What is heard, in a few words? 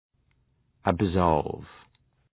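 "absolve", English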